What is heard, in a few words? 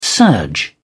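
"surge", British English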